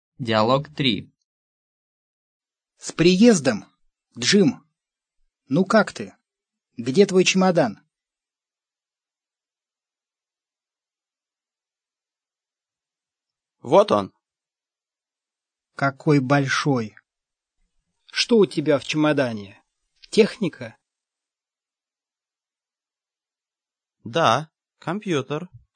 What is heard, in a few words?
dialog, russian